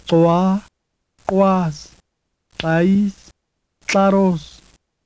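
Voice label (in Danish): nama, ustemt uaspireret, clicks; [kǀoa] [kǂoas] [kǂais] [kǀǀaros]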